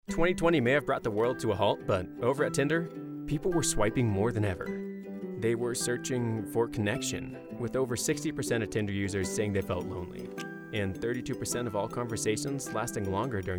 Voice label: Commercial, Male voices